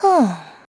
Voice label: Think, kr; voices; heroes; kingsraid

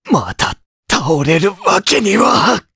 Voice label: Vox Dead, jp; Dimael; kingsraid

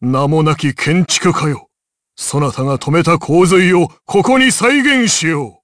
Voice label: Vox Skill jp; kingsraid

